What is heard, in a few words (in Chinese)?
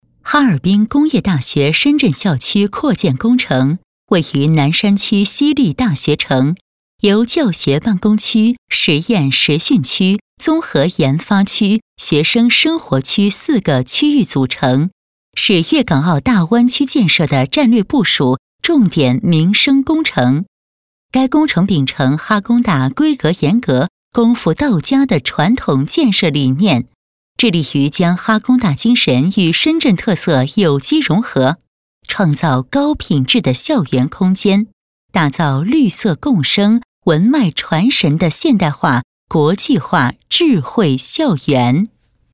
课件 亲切自然讲述; 哈工大介绍